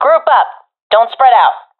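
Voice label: request stick together, professional female; vo; agents; Source Model Packs; Counter Strike Model Pack; CS2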